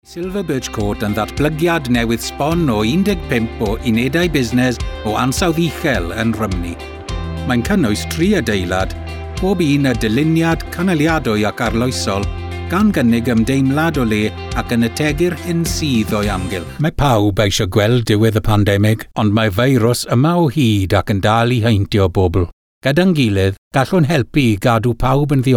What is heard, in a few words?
Welsh Language